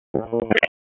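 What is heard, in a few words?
Raleigh Police Special Operations Tactical, p25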